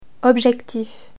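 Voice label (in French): "objectif", French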